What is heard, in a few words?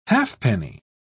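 "halfpenny", British English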